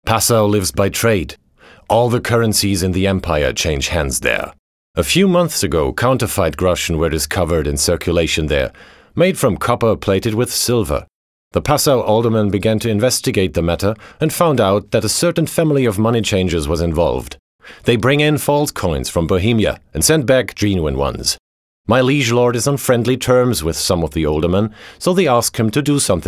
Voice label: english narration; actor de doblaje profesional